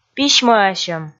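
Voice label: "письмӧасьӧм", Komi, female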